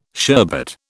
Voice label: "sherbet", British